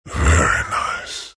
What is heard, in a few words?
happy; dota; earth spirit